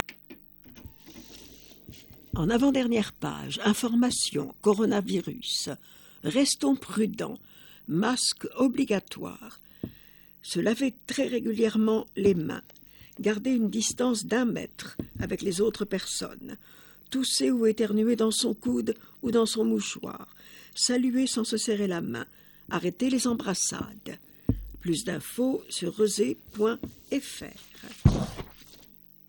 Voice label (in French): Publicite Coronavirus Restons prudents